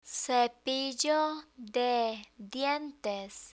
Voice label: "cepillo de dientes", Spanish

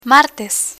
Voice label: "martes", Spanish

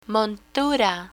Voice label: "montura" Spanish; optician vocabulary; learn spanish

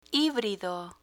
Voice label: "hibrido", Spanish

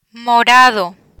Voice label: "morado" Spanish; spanish colors vocabulary; learn spanish

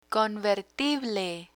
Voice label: "convertible", Spanish; picture dictionary; learn spanish

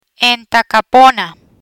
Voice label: "Entacapona", Spanish

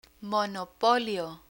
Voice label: "monopolio" Spanish; vocabulary; learn spanish

